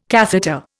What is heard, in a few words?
"catheter", Indian